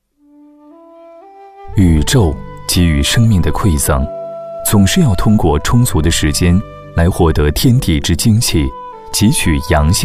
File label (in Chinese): 男国 纪录片 自然科教; 食材